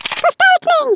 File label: "collect", Swedish, Speech; 11khz; International